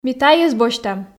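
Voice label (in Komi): ми тайӧс босьтам, Komi, female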